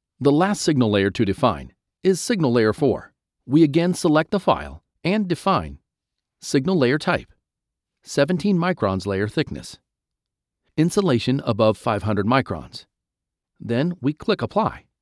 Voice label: 产品广告

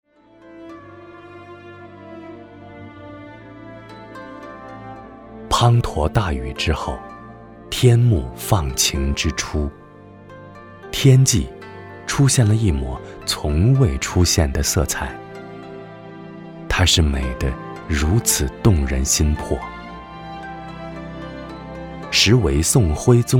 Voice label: 男 中文 广告 沉稳大气; 天青色

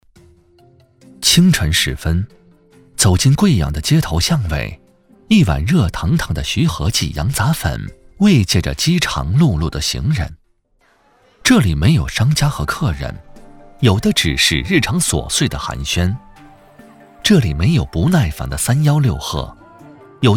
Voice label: 男 舌尖 羊杂粉

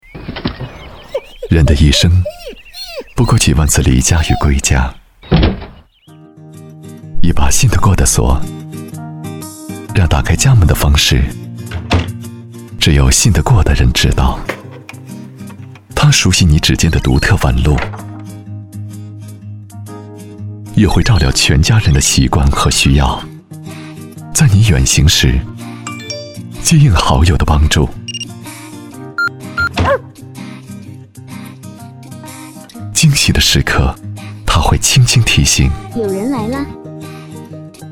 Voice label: 男 广告 门锁; 小米门锁

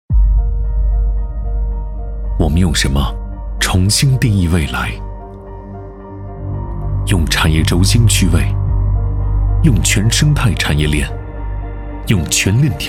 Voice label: 男 科技 产业园招商宣传片; 兴亿·1993产业园

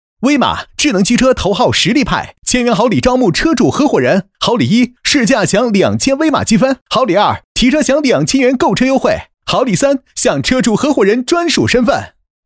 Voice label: 男-广告-汽车-年轻活泼; 威马汽车

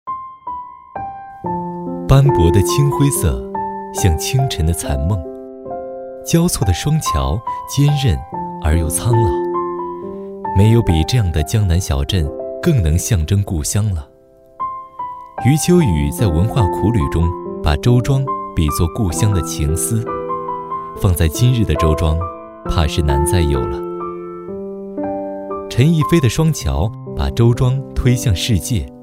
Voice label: 男 广告 大气讲述; 青灰色

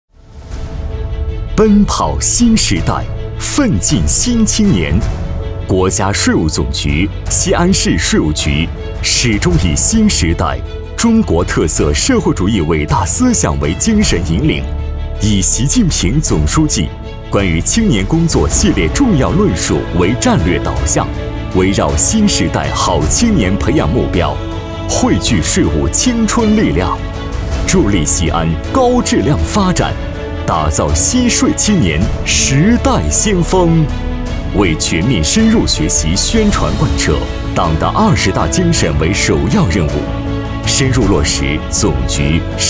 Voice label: 男, 政府; 西安市税务局税务青年

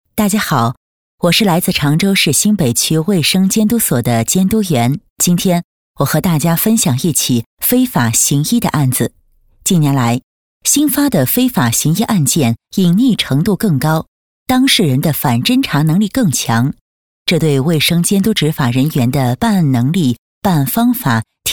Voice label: 解说 大气稳重自然; 消失的当事人

